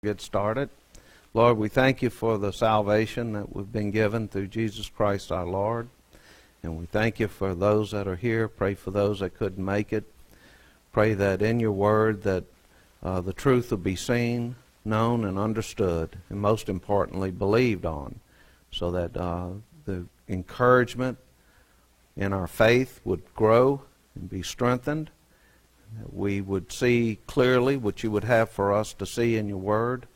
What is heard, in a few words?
preaching